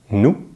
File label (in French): "nous", French; Consonantes en francés; easypronunciation